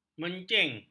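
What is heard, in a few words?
"mencing", Malay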